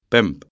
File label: "pemp", Breton